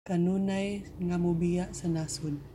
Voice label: Cebuano